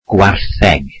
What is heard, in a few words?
welsh cows